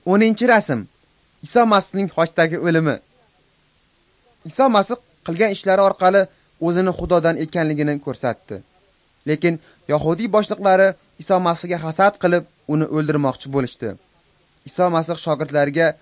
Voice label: uzbek northern

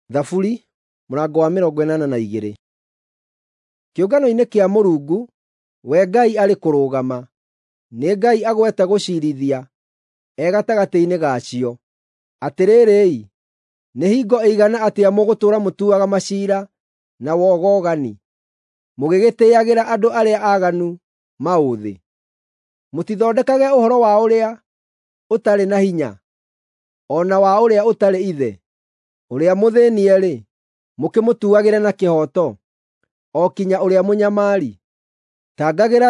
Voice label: psalms, gikuyu drama

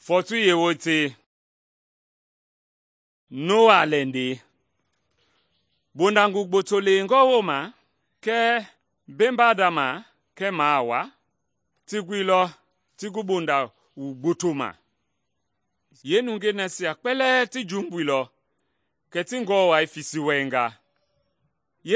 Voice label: mende bsl nt drama; good news mende kpa

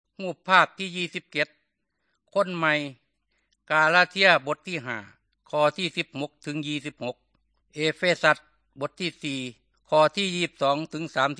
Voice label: good news thai northeastern

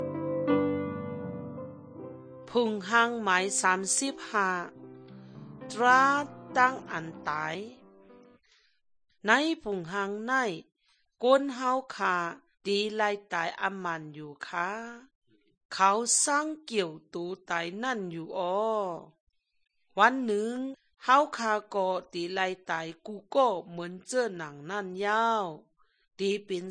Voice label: good news shan eastern, drama

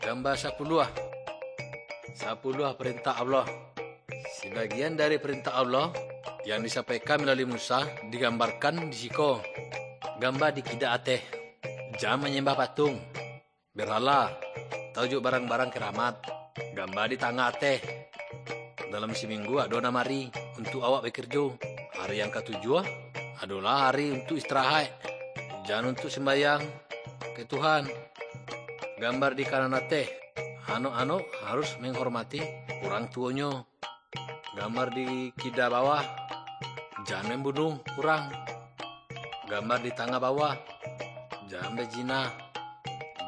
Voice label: good news minangkabau muko muko; kaba ilo

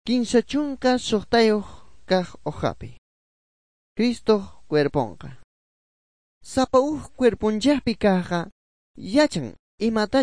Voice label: good news quechua bolivian sucre; bible

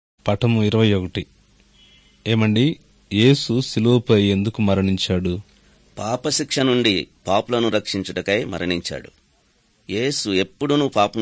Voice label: good news telugu

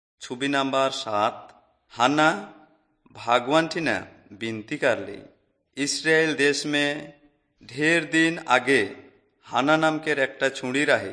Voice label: servants of god urao, kurukh drama

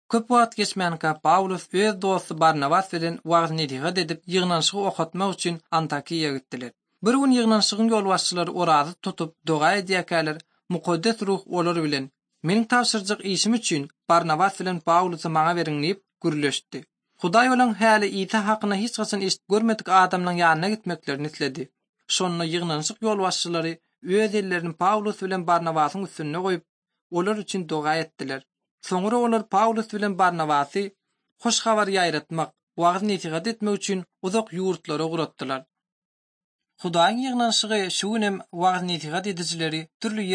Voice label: turkmen, bible translation drama

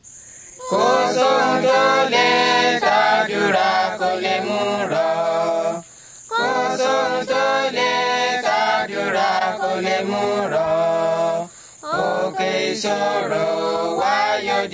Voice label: words of life yoruba; yoruba bible

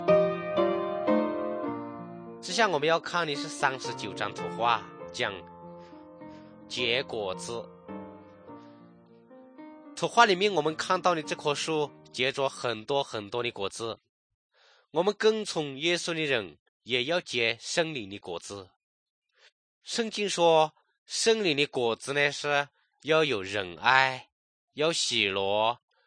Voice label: good news shan, drama; kokant shan; thailand bible society nt

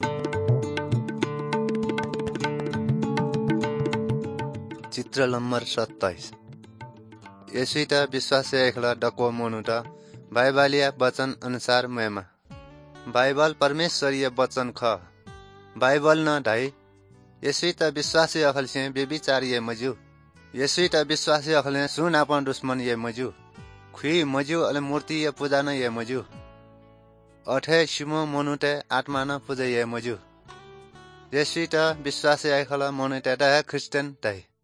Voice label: good news newari balami

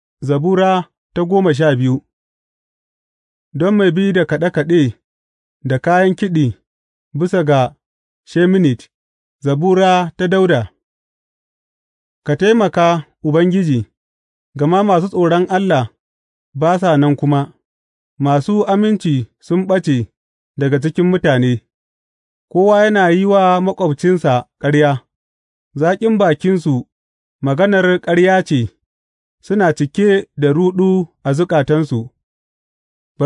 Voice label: hausa bible drama, psalms; hausa bsn nt drama

